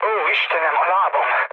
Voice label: damaged pilot, mood low, speech hun; War Thunder